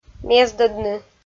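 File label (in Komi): "мездӧдны", Komi, female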